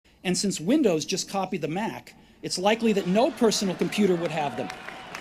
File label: Commencement Address; Steve Jobs; 2005; Stanford